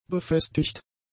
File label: "befestigt", German; adjectives